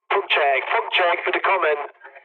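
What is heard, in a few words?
German chat, speech; War Thunder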